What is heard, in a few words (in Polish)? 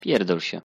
wymowa po polski pierdol sie